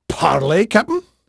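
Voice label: English male, voice; Pirates Of The Caribbean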